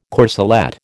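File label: "corselette", American English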